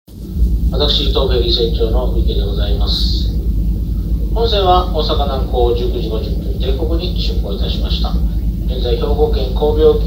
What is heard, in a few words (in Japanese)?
名門大洋フェリー2便きょうと 大阪南港→新門司港 出港後船長挨拶 / 船室内スピーカー / （20ノット：37.4km/h）